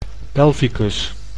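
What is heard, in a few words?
"pelvicus", Dutch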